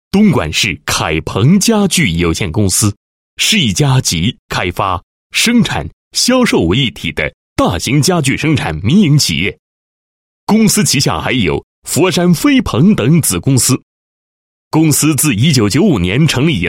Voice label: 男 企业 家具有限公司宣传简介; 某家具有限公司宣传简介

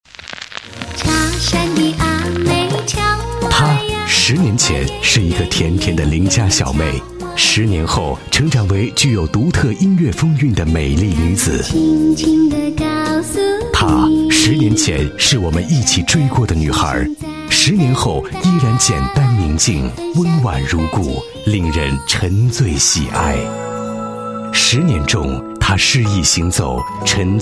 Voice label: 男 广告 温柔 磁性, 国语男声配音

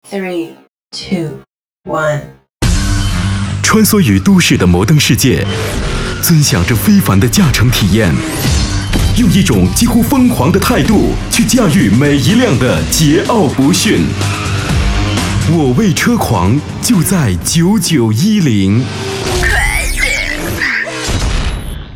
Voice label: 电台; 我为车狂

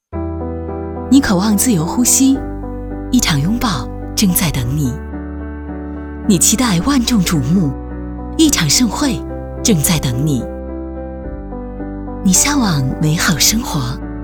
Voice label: 女; 别克英朗demo

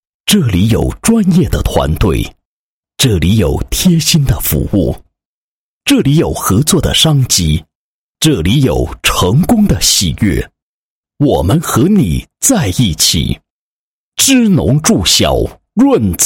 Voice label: 男 宣传广告 村镇银行宣传; 化德包商

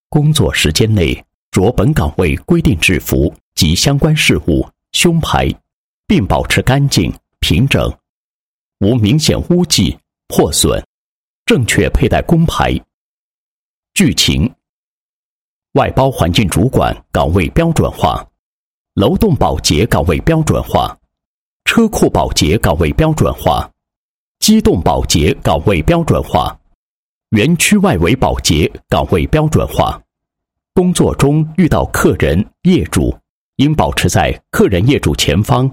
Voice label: 男 解说专题 解说; 保洁岗位标准化模块解说

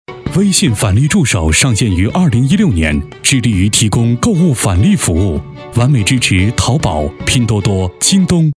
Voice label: 男 广告 沉稳旁白; 返利

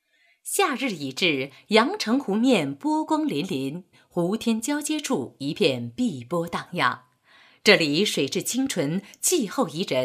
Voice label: 女 广告 大闸蟹

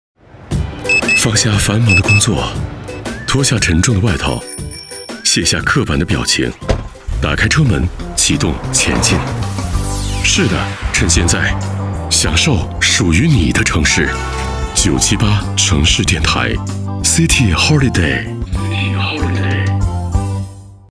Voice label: 电台频宣 轻松主张; 978城市电台

